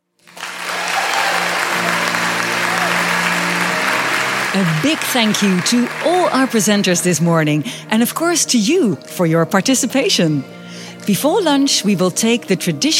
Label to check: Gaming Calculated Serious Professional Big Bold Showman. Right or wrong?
Wrong